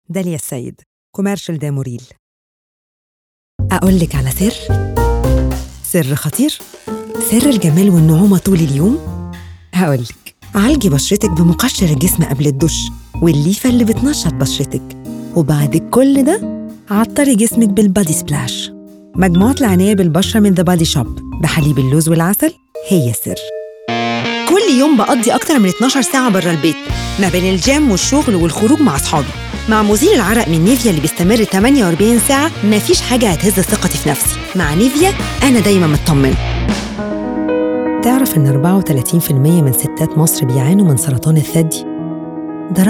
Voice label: Commercial Egyptian Arabic